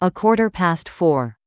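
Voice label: "a quarter past four", English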